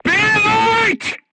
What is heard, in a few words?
voice message leave vehicle, crew tanks vietnamese